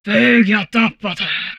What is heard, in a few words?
humanoid portrait female; Stellaris; humanoids